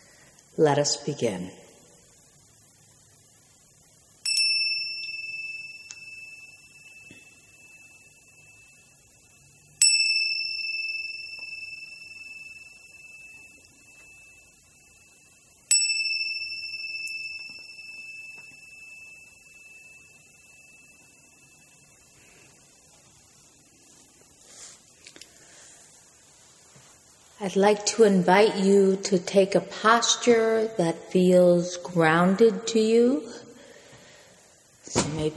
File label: Basic Meditation; Basic 10 Minute Meditation with some silence